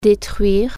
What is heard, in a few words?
"destroy", French